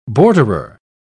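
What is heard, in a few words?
"borderer", British English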